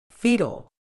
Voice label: "foetal", medical English